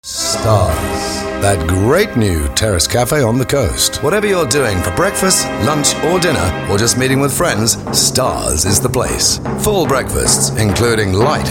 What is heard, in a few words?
voiceover